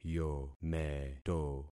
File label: "yometo", concat syllables; Affixing experiment